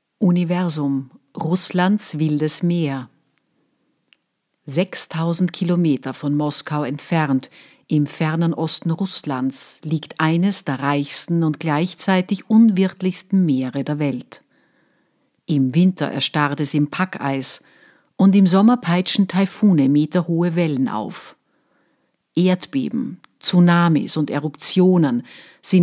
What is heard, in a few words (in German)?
Naturdoku, Sprecherin